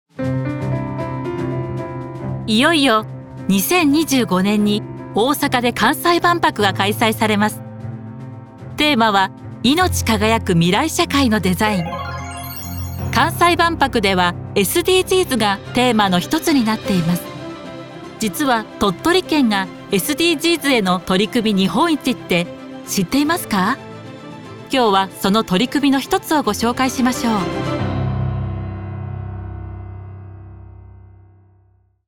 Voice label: presentation